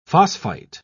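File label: "phosphite", British English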